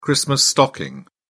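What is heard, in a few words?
"christmas stocking", British English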